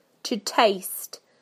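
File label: "to taste", British English; PictureDictionary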